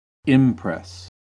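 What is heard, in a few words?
"impress", US English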